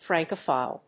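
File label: "Francophile", English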